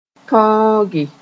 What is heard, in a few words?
"tõoge", Mooré